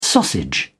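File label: "sausage", English, British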